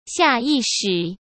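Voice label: "下意识", Mandarin Chinese; chinese-flashcards; hsk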